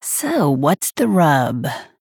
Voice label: Female Human Play